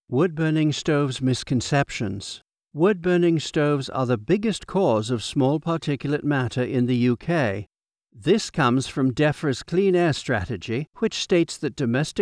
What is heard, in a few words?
E Learning British RP